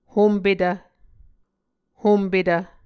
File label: "hompetv", Muscogee